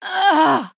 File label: Female Human Death